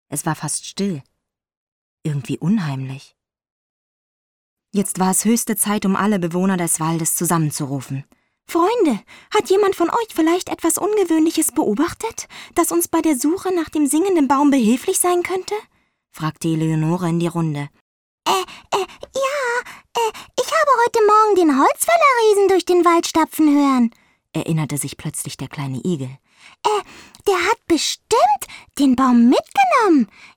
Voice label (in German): Deutsch Trickstimme